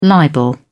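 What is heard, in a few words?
"libel", British English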